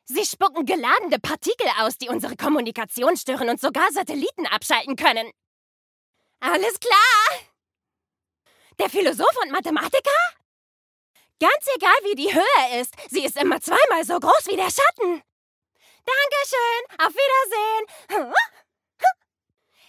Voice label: Synchron Trickstimme

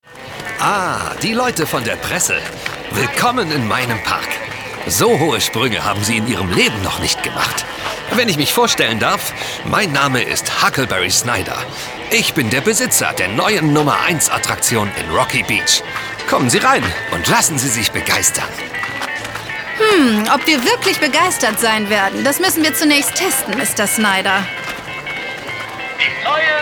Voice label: Hoerspiel Kids genervt; DDF

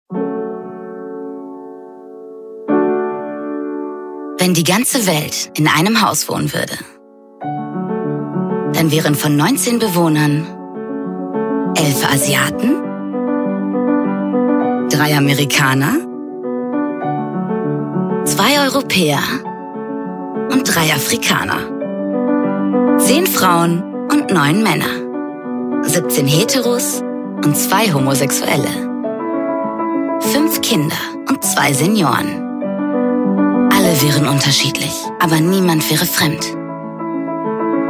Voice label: TV Spot; Lipton Haus

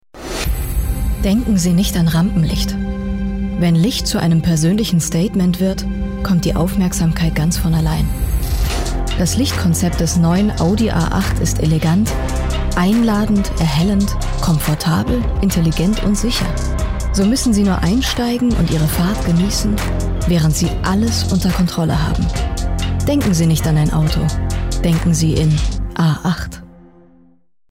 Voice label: Werbung Auto

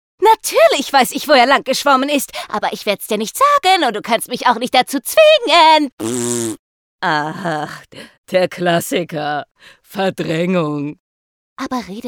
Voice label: Cartoon

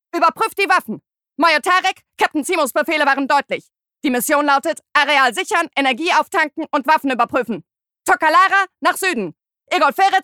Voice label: Soldatin; Computerspiel

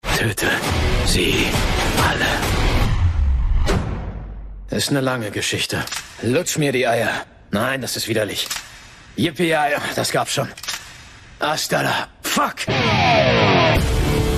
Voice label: trailer auszug; Synchron; Bloody Hell

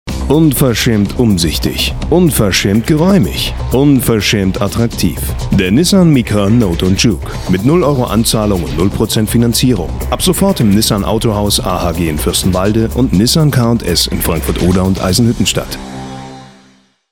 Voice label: Radiospot; Nissan; Radiowerbung

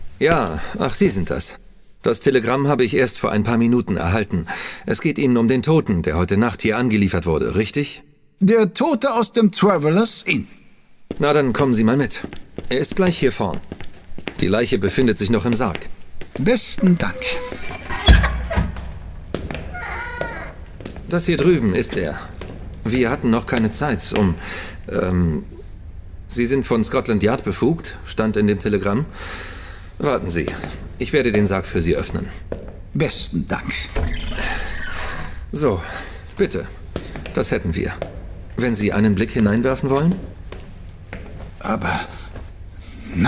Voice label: Hoerspiel serious; DE; Sherlock Holmes

